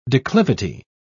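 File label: "declivity", British English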